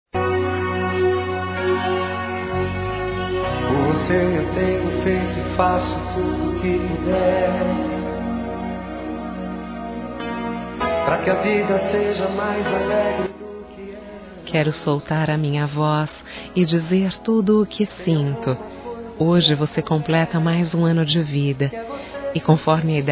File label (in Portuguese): ANIV. ROMANTICO F; Aniversários; Aniversários diversos; fem